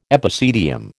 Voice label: "epicedium", American